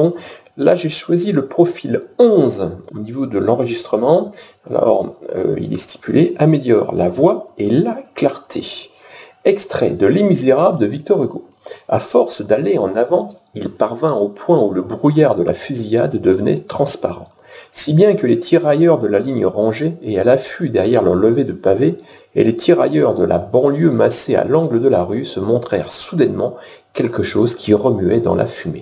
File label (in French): Test Micro